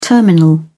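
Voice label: "terminal", British English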